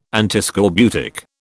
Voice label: "Antiscorbutic", British